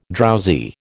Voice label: "drowsy", American

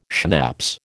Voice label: "schnapps", American

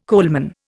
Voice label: "coalman", Indian

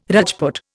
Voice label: "Rajput", Indian

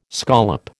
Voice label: "scollop", American

